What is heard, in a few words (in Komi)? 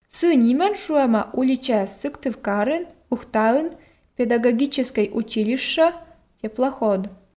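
сы нимӧн шуӧма уличаяс сыктывкарын ухтаын педагогическӧй училище теплоход, Komi, female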